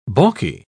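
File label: "balky", British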